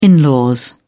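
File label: "in law", British English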